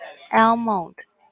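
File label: "almond", Taiwanese, female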